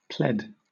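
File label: "pled", British English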